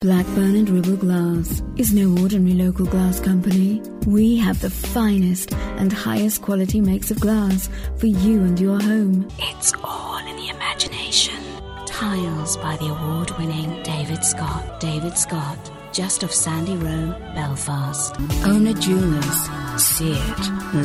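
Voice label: British, voice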